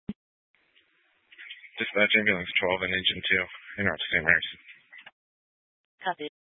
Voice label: Fire Department Dispatch, scanner; Grand Junction